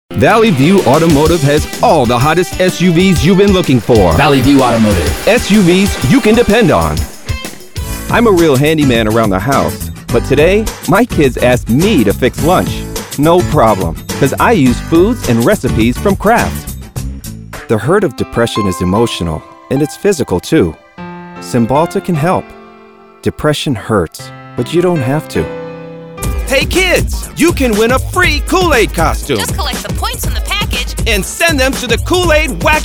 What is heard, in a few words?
Commercial